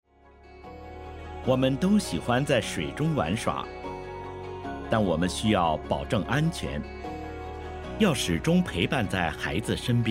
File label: MANDARIN; Life-Saving